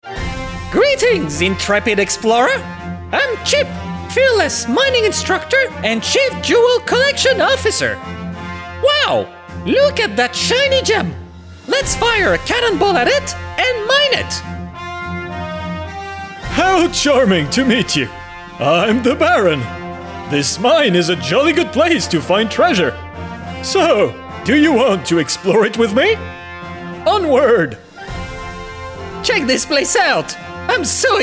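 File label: Character Cartoon, English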